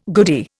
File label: "goodie", Indian